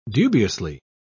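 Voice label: "dubiously", British English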